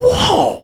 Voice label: "woah", Voice; ooh ahh

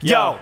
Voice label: "yo", Voice; ooh ahh

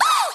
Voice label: ow female; ooh ahh; ow ouch

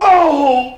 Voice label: "oh", pain; ooh ahh

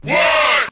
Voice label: "what" chant; ooh ahh

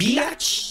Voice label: "bitch", expletives

